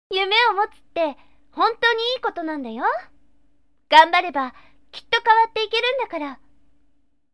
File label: sample girl